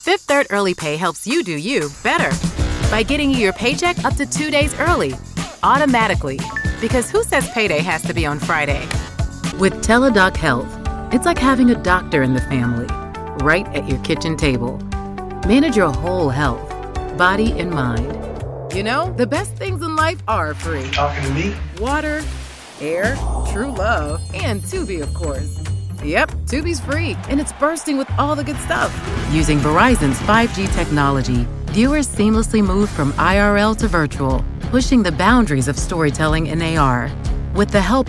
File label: Commercial